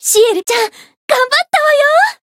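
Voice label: Voice win Japanese; channon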